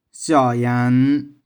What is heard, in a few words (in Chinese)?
"shiau2yang1n5", 玉環